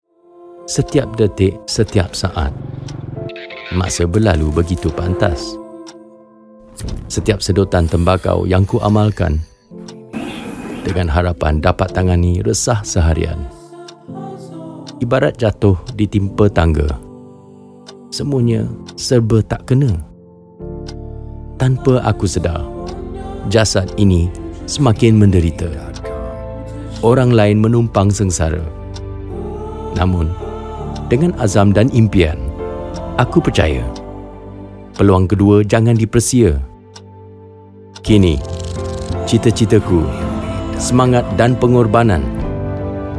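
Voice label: male VO Bahasa Malaysia Soft Emotive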